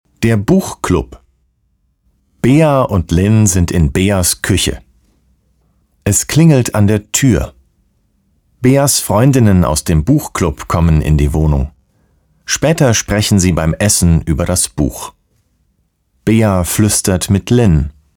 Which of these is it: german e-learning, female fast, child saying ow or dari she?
german e-learning